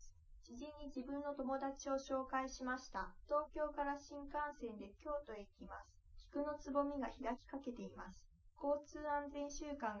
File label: female liverec; SiSEC08; SiSEC underdetermined